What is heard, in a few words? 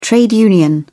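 "trade union", British English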